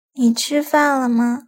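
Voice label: "ni chi fan le ma" Chinese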